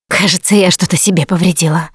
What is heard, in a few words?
going to die, russian; Producer